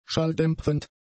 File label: "schalldämpfend", german; adjectives